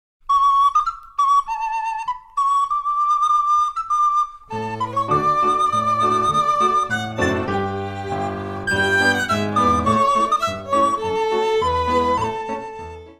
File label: Cockney Jocks